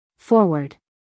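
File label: "forward", American English, female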